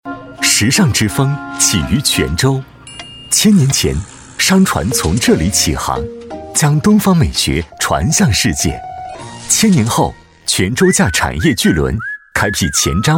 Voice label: 男 时尚感; 泉州

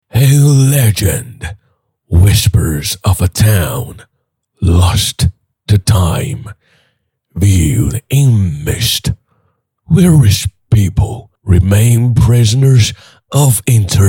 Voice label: 男 英文角色 地牢老头

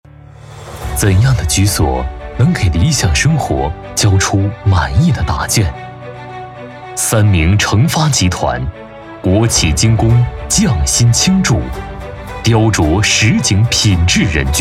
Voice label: 男 宣传片; 理想生活的满分答卷

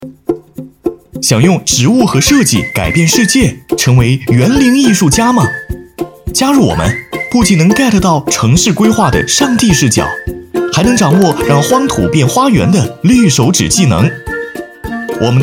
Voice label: 男 活力; 飞碟说

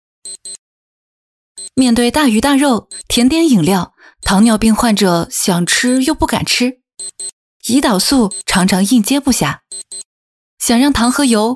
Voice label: 女 MG; 以岭药业

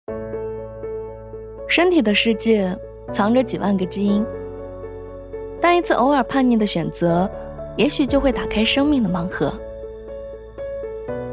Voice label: 女 走心 温暖

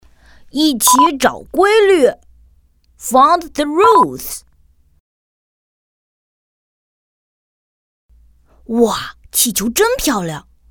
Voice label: 女 中英文男女童声

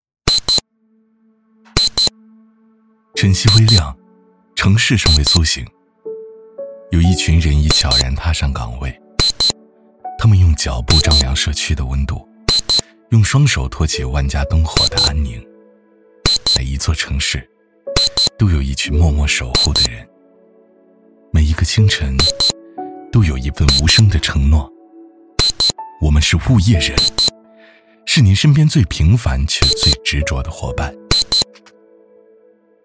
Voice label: 男 传统走心稿件

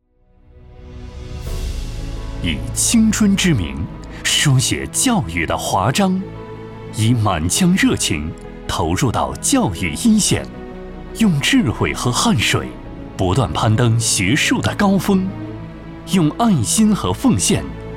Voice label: 男 教师节颁奖词

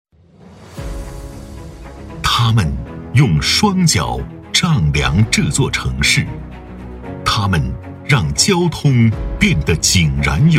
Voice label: 男 宣传片; 通安达宣传片

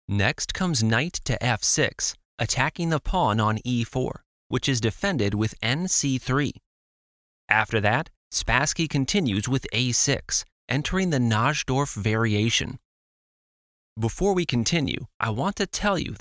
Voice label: 年轻 舒缓叙述

